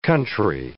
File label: "country", English